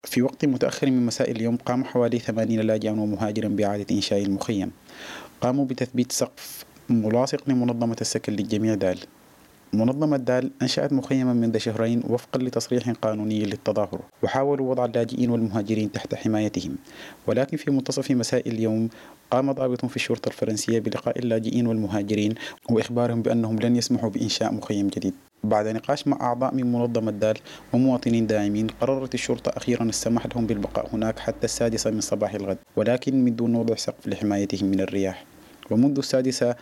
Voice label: Camp without tarps, Arabic